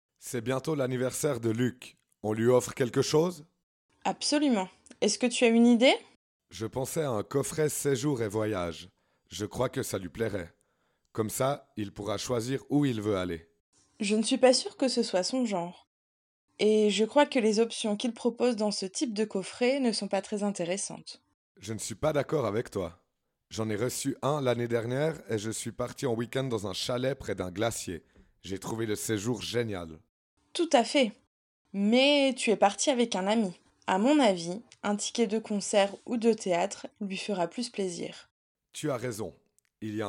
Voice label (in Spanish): dialogo, francais